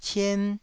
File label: "cien1", Taiwanese